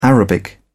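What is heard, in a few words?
"arabic", British English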